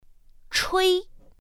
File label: "chuī", pinyin; pinyin2; pinyinji